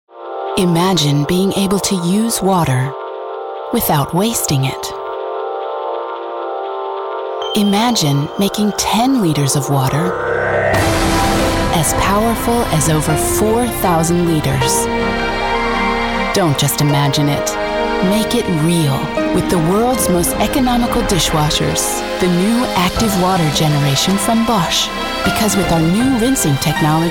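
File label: US English, Dynamisch; Fremdtake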